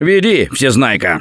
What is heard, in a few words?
Lead On, russian; Gambler